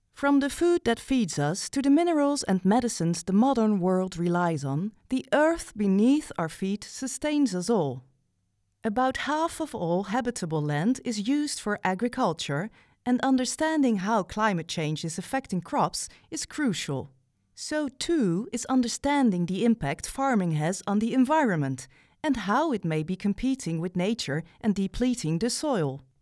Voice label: Informational Narative English Mid European Accent